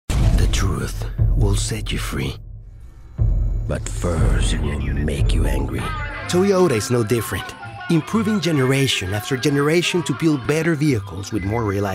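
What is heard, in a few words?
COMMERCIALS VO English; COMMERCIALS VO DEMO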